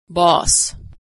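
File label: "boss", American English; vowel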